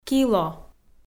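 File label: "кило", Komi, female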